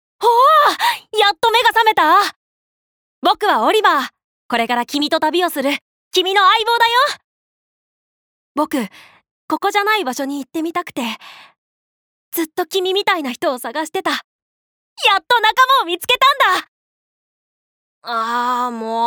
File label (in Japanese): アニメ